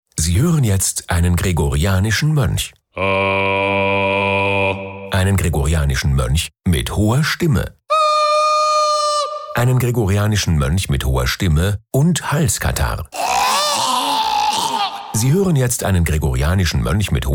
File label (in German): Werbung DE CH